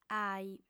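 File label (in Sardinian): "aih", lingua sarda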